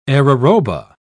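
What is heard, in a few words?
"araroba", British English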